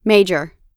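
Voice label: "major", American English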